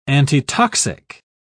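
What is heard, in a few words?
"antitoxic", British English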